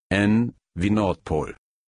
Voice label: "nordpol", deutsch; buchstabieren